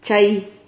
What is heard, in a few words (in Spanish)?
"chai", Silabas